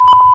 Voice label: chat; Fortress2; OnlineGame